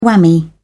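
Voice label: "whammy", British English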